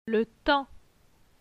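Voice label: "Le temps", French; phonetique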